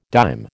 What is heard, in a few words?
"dime", American